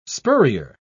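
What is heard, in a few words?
"spurrier", English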